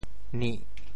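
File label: "nih4", Teochew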